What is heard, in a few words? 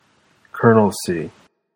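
"colonelcy", US English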